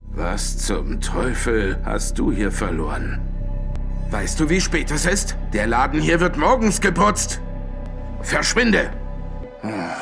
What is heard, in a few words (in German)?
Synchron, maenner; Unseen